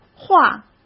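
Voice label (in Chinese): "话", Mandarin Chinese; hsk; chinese-flashcards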